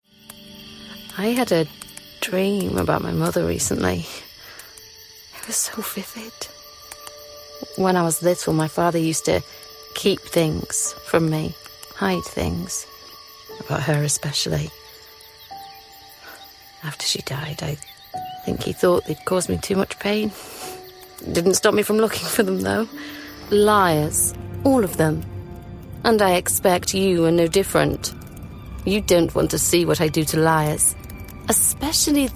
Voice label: English british video games